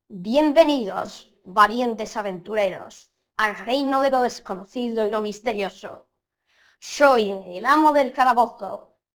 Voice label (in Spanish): voz amo nino; galeria sonora